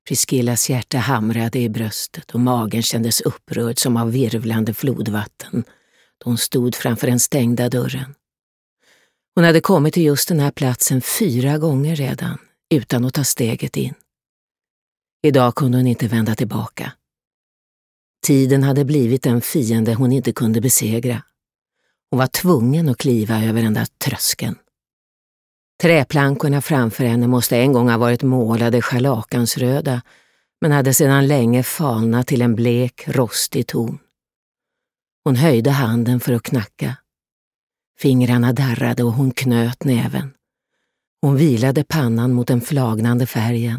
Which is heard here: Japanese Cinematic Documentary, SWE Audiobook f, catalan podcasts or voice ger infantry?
SWE Audiobook f